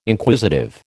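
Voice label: "Inquisitive", American English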